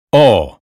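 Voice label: American phonetics sound horse; 英语音标发音（剑桥版）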